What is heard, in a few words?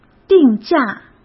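"定价", Mandarin Chinese; chinese-flashcards; hsk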